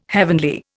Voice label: "heavenly", Indian

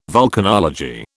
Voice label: "vulcanology", British

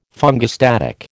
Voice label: "fungistatic", American

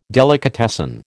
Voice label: "delicatessen", American

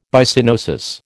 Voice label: "Byssinosis", American

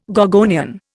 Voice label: "gorgonian", Indian

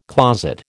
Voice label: "closet", American

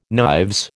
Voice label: "knives", American

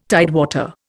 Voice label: "tidewater", Indian

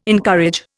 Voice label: "encourage", Indian

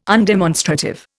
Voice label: "undemonstrative", Indian

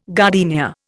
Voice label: "gardenia", Indian

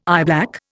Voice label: "eyeblack", Indian

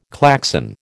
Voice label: "Klaxon", American

